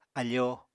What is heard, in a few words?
"afoo", apatani